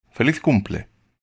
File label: Happy Birthday in Spanish Feliz Cumple